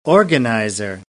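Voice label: "organizer", American English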